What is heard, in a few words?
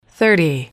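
"thirty", English, female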